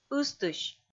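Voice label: "ыстысь", Komi, female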